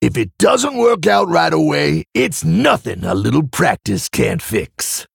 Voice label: Male Tauren